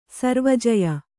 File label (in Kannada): "ಸರ್ವಜಯ", Kannada